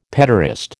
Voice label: "petaurist", American